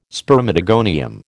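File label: "spermatogonium", American English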